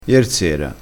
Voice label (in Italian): "iersera", crespinese